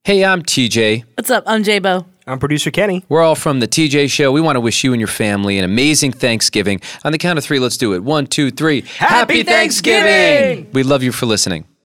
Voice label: THANKSGIVING PROMO